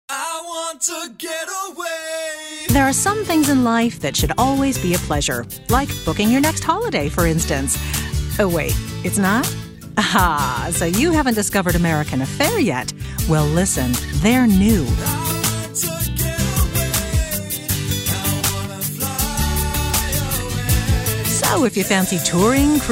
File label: warm and friendly